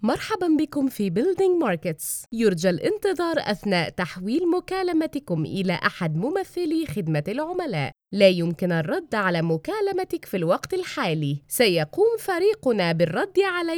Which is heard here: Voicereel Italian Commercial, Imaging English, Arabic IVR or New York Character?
Arabic IVR